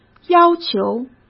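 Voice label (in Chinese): "要求", Mandarin Chinese; hsk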